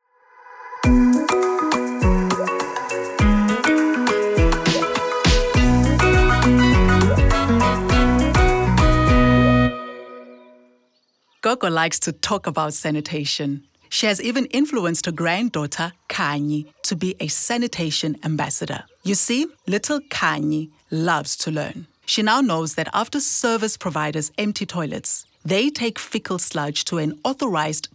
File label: English, Audio Soundbites; Sanitation